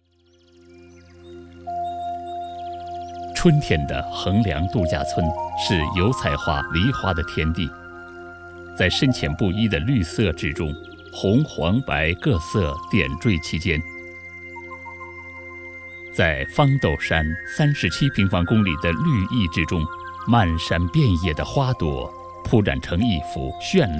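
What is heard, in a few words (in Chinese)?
专题配乐抒情娓娓道来; 横梁渡春天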